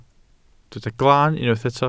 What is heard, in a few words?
"glan", Welsh